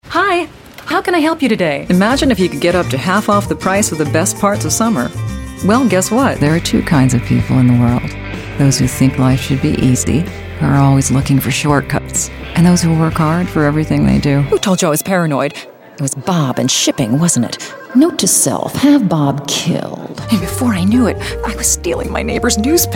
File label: Commercial